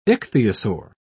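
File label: "ichthyosaur", British English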